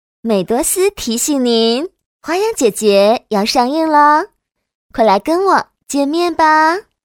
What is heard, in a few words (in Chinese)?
女 模仿, 国语女声配音; 林志玲 美多丝